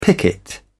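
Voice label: "picket", British English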